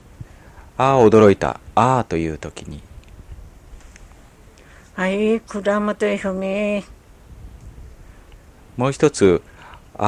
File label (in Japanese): ああおどろいた, Ainu; mukawa